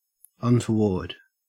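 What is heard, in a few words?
"untoward", Australian English; pronunciations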